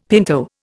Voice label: "pinto", Indian; Dictionary

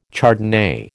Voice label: "Chardonnay", American

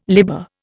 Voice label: "libber", Indian

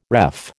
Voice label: "ref", American

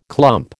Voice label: "clump", American

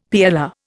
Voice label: "PLR", Indian; Dictionary

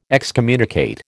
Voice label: "excommunicate", American

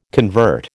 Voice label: "convert", American

